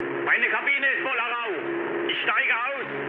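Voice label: German on fire mood med, speech; War Thunder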